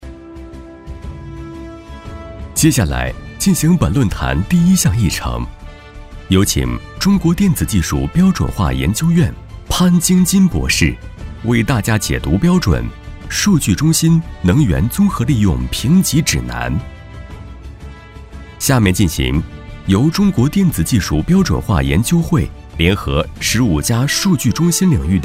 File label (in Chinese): 专题浑厚大气自然会议流程